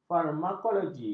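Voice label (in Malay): "farmakologi", Malay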